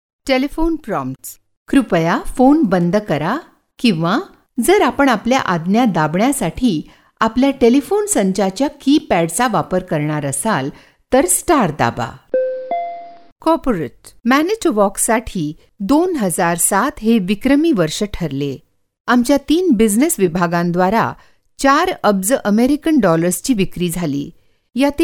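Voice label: Marathi, General; GoLocalise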